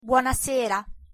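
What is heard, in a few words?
"buonasera", Italian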